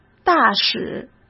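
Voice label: "大使", Mandarin Chinese; chinese-flashcards; hsk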